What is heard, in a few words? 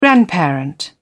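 "grandparent", English, British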